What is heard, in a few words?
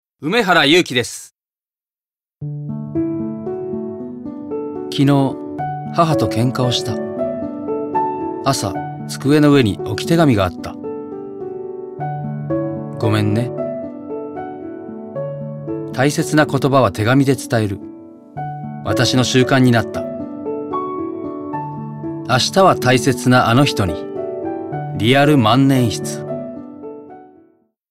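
commercial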